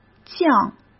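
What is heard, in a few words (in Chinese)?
"降", Mandarin Chinese; hsk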